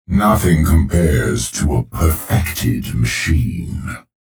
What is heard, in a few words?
menu chatter; clutch